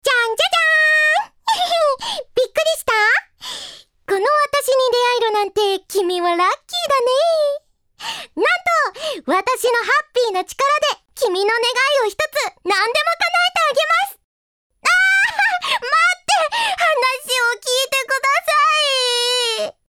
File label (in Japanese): アニメ